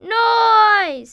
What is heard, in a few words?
'CH' Noise